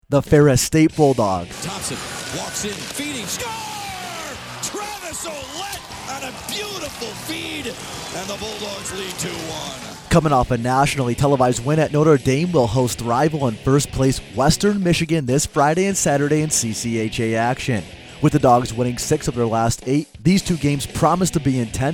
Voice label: Radio Commercial; WMU